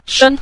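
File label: "shen", Mandarin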